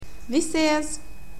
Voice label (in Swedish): "vi ses", swedish, Greetings